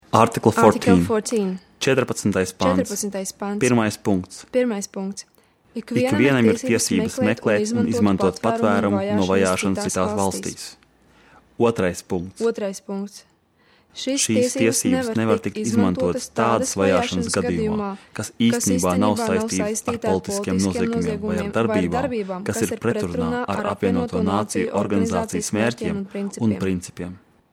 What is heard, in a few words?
Latvian duo